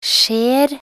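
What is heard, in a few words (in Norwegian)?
"skjer", Norwegian, Ord med sj-lyd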